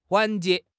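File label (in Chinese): "环节", Chinese; HSK; HSK6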